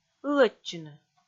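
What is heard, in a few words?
"ылӧдчыны", Komi, female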